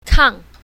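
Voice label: "kang4", pinyin, south, female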